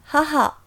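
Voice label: "haha", Japanese; 母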